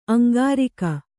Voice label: "ಅಂಗರಕ್ಕೆ", kannada